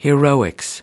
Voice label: "heroics", us